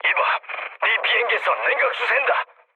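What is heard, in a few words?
Korean damaged water mood low; War Thunder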